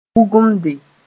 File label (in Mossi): "wʋgemde", moore; detach